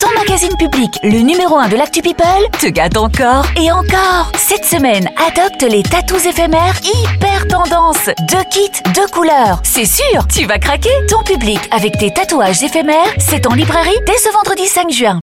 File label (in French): Voix francophone